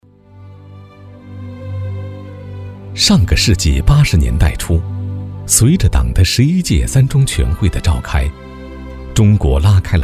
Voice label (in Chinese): 男 专题 中国改革开放